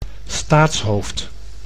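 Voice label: "staatshoofd", Dutch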